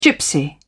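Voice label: "gypsy", British English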